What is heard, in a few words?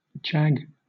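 "jag", British English; pronunciations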